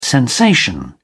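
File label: "sensation", British English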